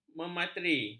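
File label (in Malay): "memateri", Malay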